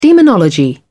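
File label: "demonology", British English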